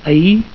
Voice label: "ahir", Catalan